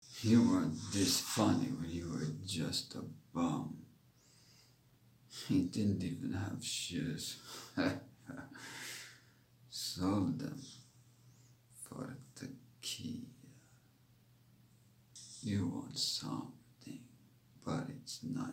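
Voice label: US English California English Mexican California Borders Accent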